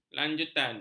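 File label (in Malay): "lanjutan", Malay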